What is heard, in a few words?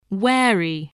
"wary", spelling